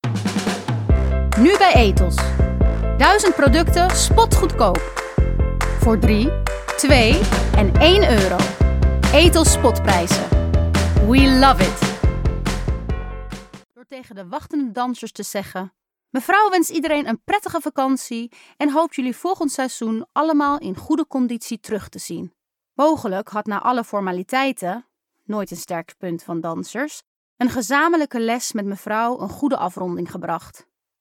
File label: Dutch